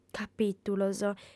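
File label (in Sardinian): "capìtulos", female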